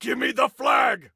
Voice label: Flag Give Me, Soldier Male